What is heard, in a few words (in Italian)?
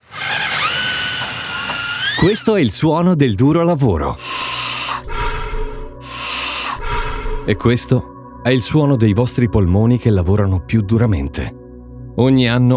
RADIO ITALIAN; Italian Radio B